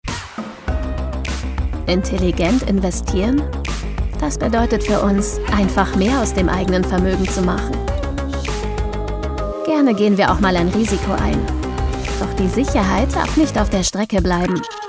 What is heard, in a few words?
female german commercial; ideasonora